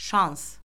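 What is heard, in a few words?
"şans", Azerbaijani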